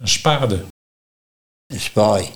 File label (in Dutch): "Spaai", Nieuw lekkerlands dialect; spade